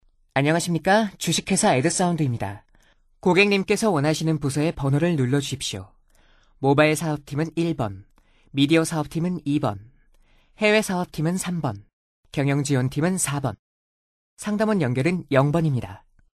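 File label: Korean, male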